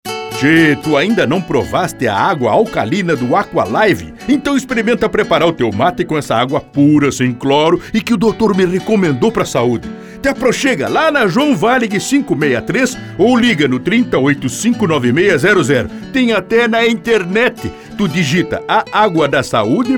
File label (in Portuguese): Spot